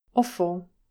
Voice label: "offal", English pronunciation